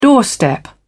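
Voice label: "doorstep", British English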